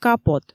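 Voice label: "капот", Komi, female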